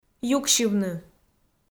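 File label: "юксьывны", Komi, female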